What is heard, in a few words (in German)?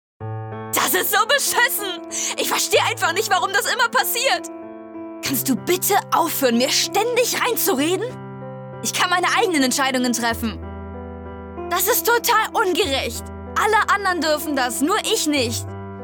Wuetend mit Musik, Lip-Sync (Synchron), German